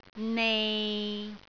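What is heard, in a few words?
"nei6", Cantonese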